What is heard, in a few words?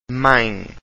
"mae", Portuguese, diphthongs